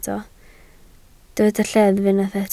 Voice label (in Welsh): "lleddf" Welsh